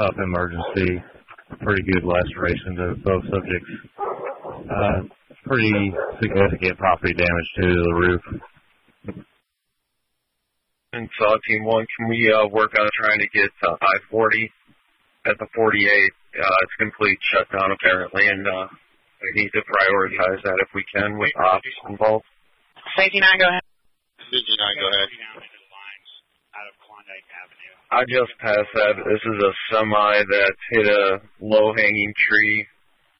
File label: Asheville, NC Fire Department radio communications